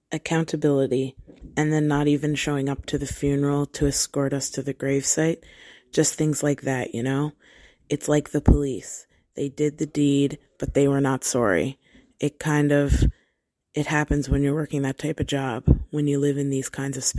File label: testimonial